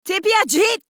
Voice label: Reload Female; Warframe; Modular Corpus Female; Battle Barks; en